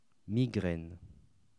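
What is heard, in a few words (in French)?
"migraine", French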